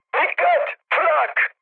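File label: damaged aa, mood med, Turkish; speech; War Thunder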